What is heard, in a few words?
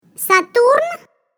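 Russian SATURN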